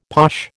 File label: "posh", American